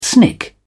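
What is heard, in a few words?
"snick", British English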